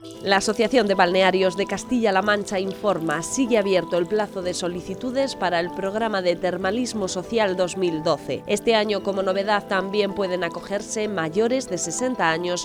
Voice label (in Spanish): Voz Femenina; Balnearios CLM